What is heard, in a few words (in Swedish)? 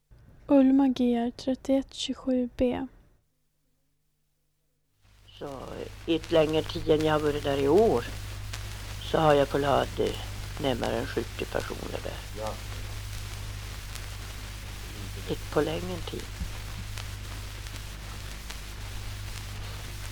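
Ragunda Jämtland, dialekter; Folkminnen; Svenska sagor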